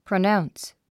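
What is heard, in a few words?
"pronounce", English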